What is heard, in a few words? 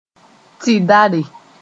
"tɩdare", moore